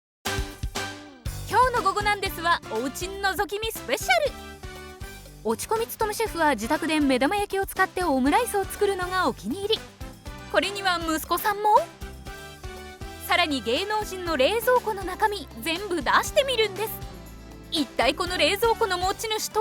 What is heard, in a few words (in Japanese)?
ボイスサンプル ナレーション バラエティー